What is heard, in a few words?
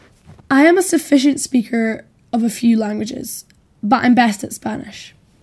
female filler; interviewee